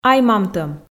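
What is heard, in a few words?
"ай-мамтӧм", Komi, female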